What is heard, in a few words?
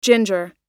"ginger", us